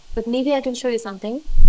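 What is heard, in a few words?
voice acting, es, female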